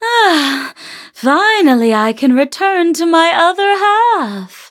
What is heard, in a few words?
victory en; cookie run